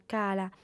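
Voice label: "cala", female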